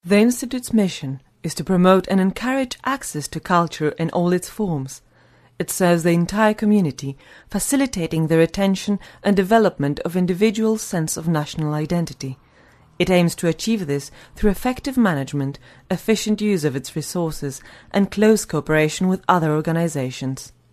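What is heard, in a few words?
Polish, English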